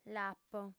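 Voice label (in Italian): "l'apo", lingua sarda, fem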